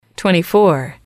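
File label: "twenty four", English, female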